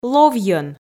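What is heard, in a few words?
"ловйӧн", Komi, female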